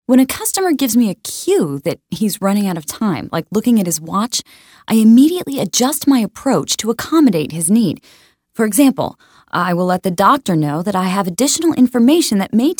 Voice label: 20s Female e Learning Character